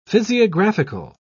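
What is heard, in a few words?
"physiographical", British English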